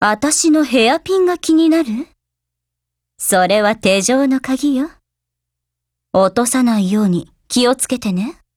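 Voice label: DIALOGUE, Japanese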